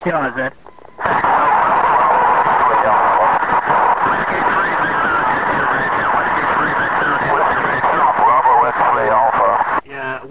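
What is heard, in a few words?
amateur radio contact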